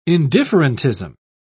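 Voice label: "indifferentism", British English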